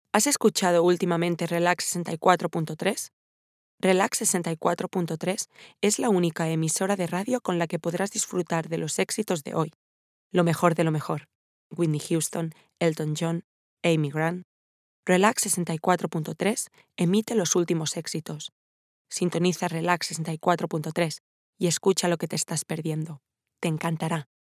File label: spanish relax